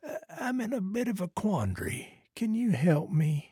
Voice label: trader male like quest offer